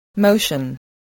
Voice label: "motion", voice, spelling